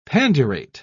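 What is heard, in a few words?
"pandurate", British English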